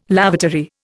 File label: "lavatory", Indian